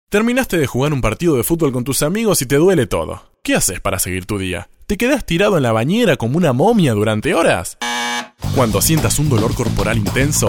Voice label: Publicidad; Hombre

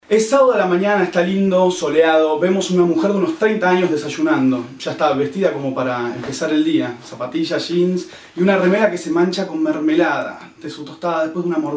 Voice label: Hombres; Casting Impulse

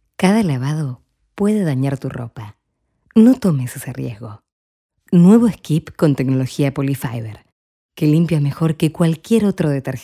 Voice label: Espanol; Casting Impulse

